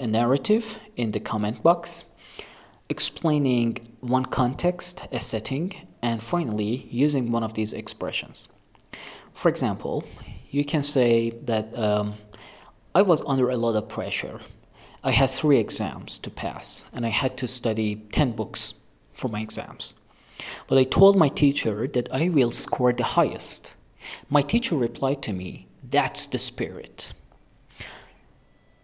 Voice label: Spoken English Exercise